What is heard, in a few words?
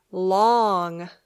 "long", English